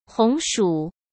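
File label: "红薯", Mandarin Chinese; hsk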